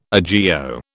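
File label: "agio", British English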